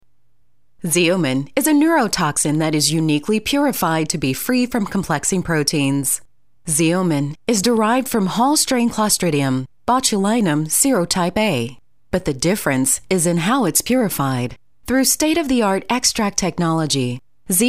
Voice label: eLearning Narration; Warm, Conversational, and Authoritative; Xeomin